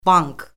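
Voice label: "bank", Azerbaijani; Orfoqrafiya Lüğəti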